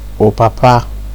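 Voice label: "old papa", Nigerian Pidgin, male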